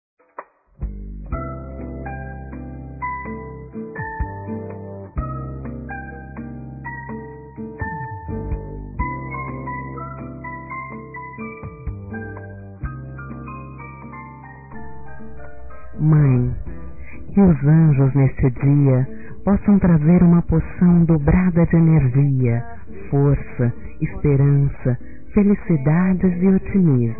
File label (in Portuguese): Dia das Mães Versos Feminino; Telemensagens 2017